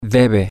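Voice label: she should, Spanish, male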